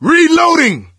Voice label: reload intense, survivor voice; Left 4 Dead 2; coach